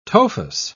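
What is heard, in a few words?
"tophus", British English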